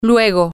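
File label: Spanish, female; later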